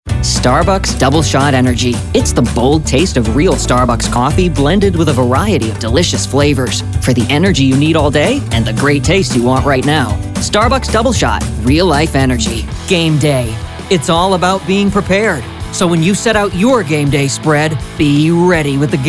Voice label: reklam, english male voices american accent